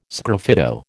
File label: "sgraffito", American